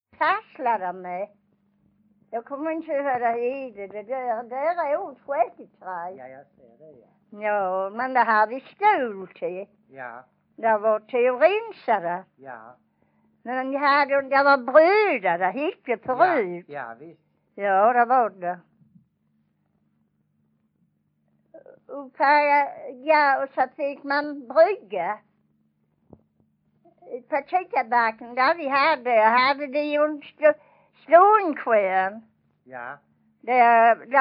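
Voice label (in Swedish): Vånga Skåne, Svenska sagor dialekter; Folkminnen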